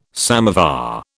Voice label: "samovar", British